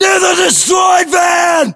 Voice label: landmark near van destroyed, battlechatter us